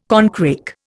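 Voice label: "corncrake", Indian English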